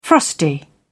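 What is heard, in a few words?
"frosty", British English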